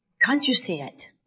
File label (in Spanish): Voice Acting es